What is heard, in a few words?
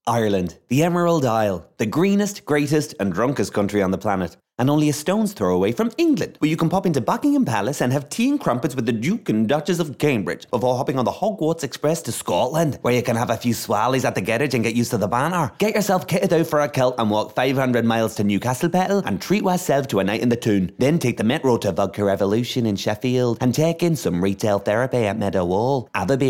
Accent Reel